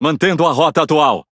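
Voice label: Speech Pilots Torpedo Airborne, Brazilian Portuguese; World Of Warships; PT BR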